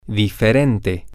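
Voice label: different, spanish, male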